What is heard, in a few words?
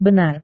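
"benar", Indonesian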